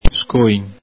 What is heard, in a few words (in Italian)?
"scoìn", Veneto; broom (little b.); granatino